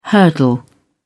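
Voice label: "hurdle", English, British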